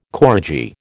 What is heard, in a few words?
"koradji", American